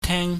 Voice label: "teng", pingyam